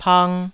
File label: "pang2", taiwanese